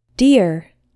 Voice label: "deer", English